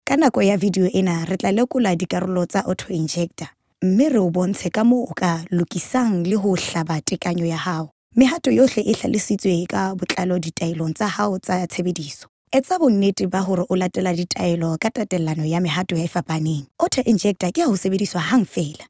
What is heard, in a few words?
Sesotho